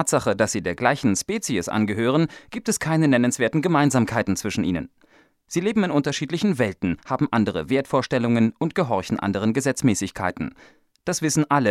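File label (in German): Männer Frauen, Telefonansagen; Sprecher; deutsch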